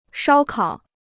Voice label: "烧烤", Chinese